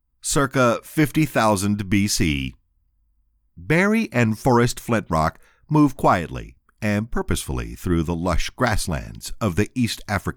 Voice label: Light Humor Voice